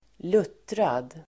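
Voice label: "luttrad", Swedish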